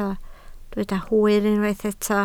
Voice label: "hwyr" Welsh

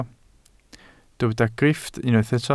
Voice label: "grifft", Welsh

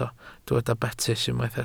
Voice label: "betys", Welsh

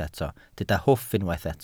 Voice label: "hwff" Welsh

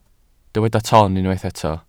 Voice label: "ton", Welsh

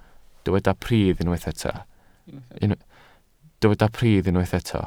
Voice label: "pridd" Welsh

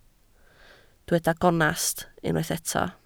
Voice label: "gonest" Welsh

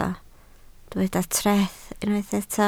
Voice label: "treth" Welsh

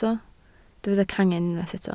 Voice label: "cangen", Welsh

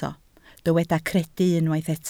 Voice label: "credu", Welsh

